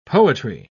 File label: "poetry"